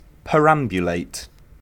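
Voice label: "perambulate" English, British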